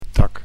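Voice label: "tak", Dutch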